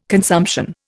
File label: "consumption", Indian